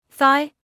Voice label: "thigh", medical English